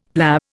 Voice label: "PLA", Indian; Dictionary